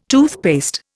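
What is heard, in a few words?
"toothpick", Indian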